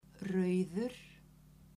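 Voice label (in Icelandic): "rauður", Icelandic, female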